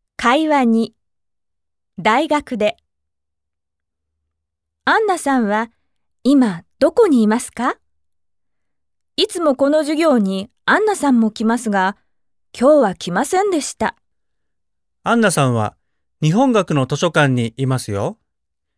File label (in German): dialog; konnichiwa band 2 cd 1